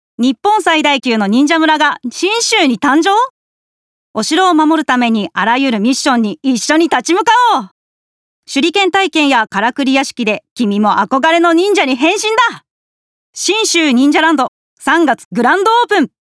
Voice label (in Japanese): テーマパークCM